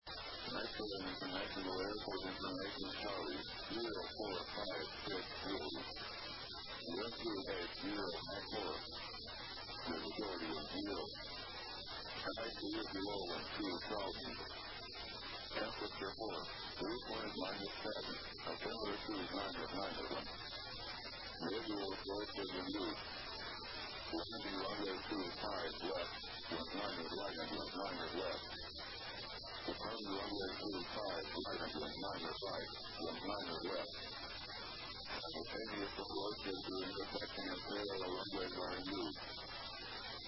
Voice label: ATIS, USA; las